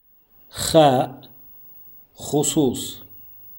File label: Kha, modern standard arabic